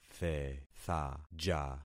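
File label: "thethaja", concatenated syllables; Affixing experiment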